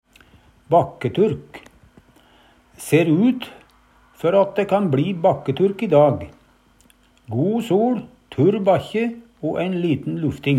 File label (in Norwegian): bakketurk, Numedalsmål; Ser ut før at dæ kan bLi bakketurk i dag. Go soL, turr bakkje o æin liten